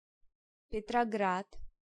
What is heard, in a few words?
"Petrograd", Pronunciation